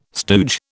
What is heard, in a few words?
"stooge", British